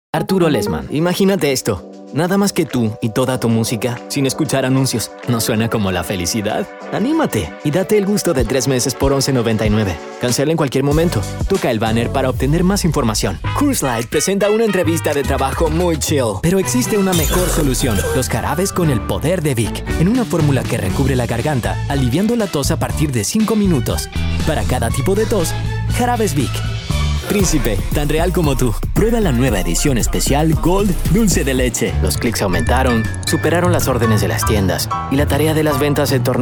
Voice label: spanish latin american commercial